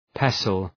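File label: "pestle", English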